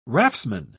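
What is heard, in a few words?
"raftsman", British English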